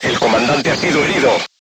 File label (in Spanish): voice message commander wounded, Spanish